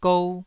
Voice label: "gou2", Taiwanese syllable